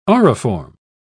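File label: "auriform", British English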